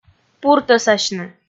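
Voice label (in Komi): "пуртӧсасьны", Komi, female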